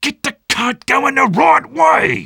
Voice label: Sniper cart going back offense soft

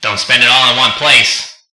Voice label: barker win; Boardwalk

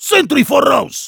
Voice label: sentry ahead, de; Sentry voraus!; Sprachbefehle vom Heavy

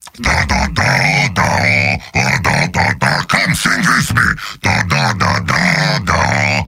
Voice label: Heavy cheers

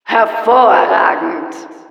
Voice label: announcer success de; "Hervorragend!"

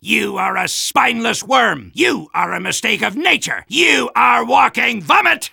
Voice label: Soldier taunts

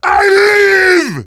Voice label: battle cry

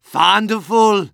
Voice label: Medic positive vocalization

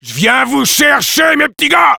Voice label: sniper taunts, fr

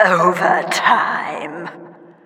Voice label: Announcer overtime

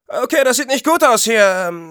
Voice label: negative vocalization, de; Scout

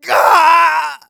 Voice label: pain severe, de

